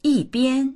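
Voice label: "yi4bian1", Chinese; 一边; 一边～一边‥‥ ～しながら‥‥する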